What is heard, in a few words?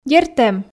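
"дерт эм", Komi, female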